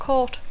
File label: "court", English